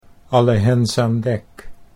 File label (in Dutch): "alle hens aan dek", Dutch